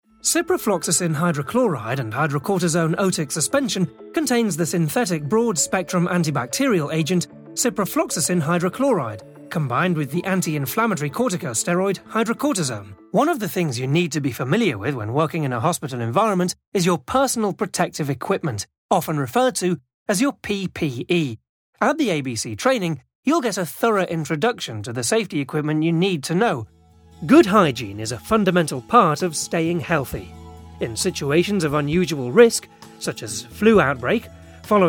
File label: Medical Voice reel